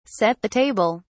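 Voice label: "set (the table)", British English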